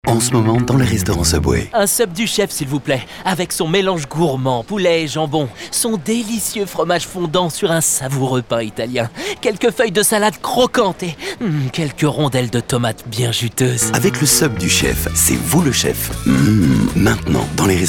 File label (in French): spot radio, demos voix; subway sub du chef